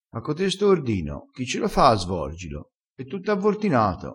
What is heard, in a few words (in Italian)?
"avvortina", crespinese